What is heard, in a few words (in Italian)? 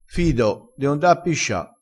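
"fido", vocabolario dialettale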